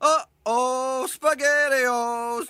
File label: uh oh; Uh oh Spaghetti-Os